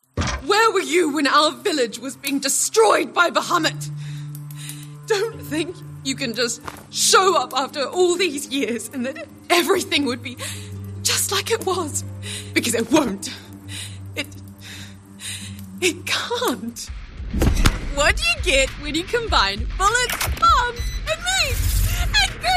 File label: english british video games